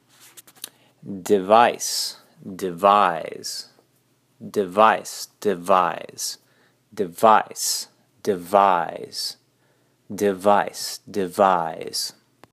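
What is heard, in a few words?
Device devise, English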